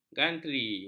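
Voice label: "gantri", Malay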